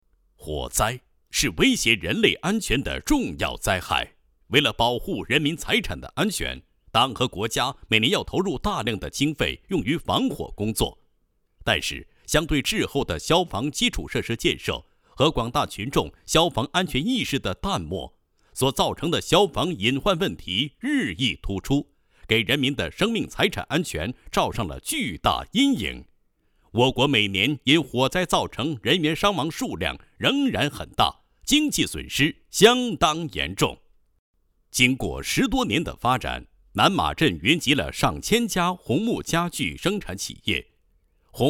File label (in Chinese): 男, 教育警示; 大火无情 警示世人